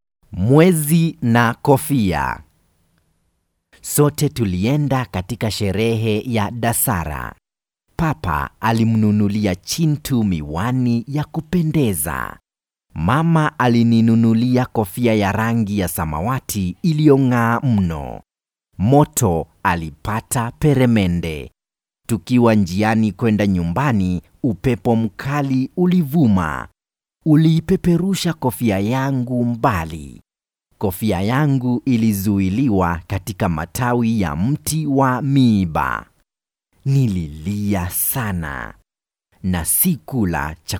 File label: Swahili audiobooks